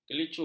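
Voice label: "kelicu", Malay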